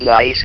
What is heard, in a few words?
"nice", VIDEO GAMES; gorf